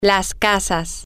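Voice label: the houses, Spanish, female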